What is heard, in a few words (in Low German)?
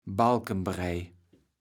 "Balkenbrej", kaevels platt